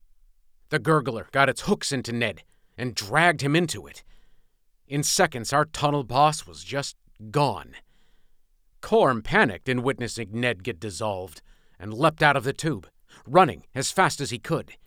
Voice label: Fantasy, First Person, Young Male; audiobook narrator